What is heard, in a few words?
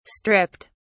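"stripped", British English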